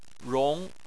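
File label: "rong", Chinese, tone 2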